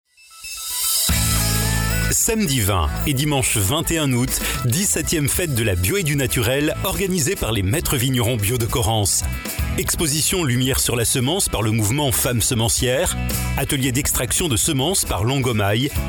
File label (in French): SPOT VOIX OFF; pub